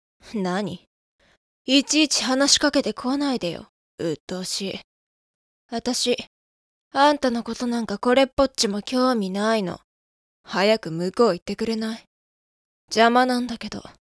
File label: girl